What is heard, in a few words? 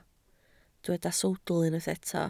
"sawdl", Welsh